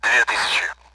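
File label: Speech Sound Russian Common AWACS Numbers; DCS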